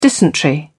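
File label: "dysentery", British English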